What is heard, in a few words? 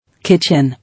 "Kitchen", English